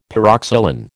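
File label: "pyroxylin", American English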